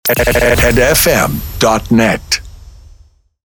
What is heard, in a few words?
Sweeper Xmas Short